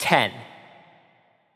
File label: Announcer Count 10; Paladins